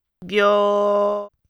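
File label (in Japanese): "びょ", Japanese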